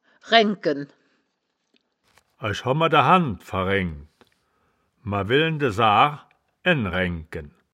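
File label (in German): "raengken", Wittlicher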